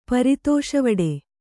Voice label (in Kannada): "ಪರಿತೋಷವಡು", kannada